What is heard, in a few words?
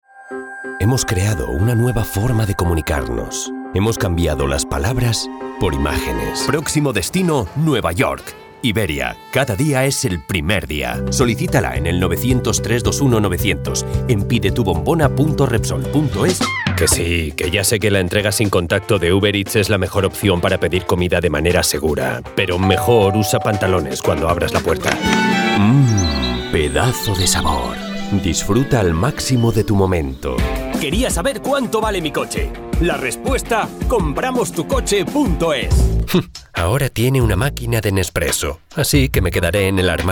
spanish commercial; Spanisch; Spanien; professioneller Synchronsprecher aus Spanien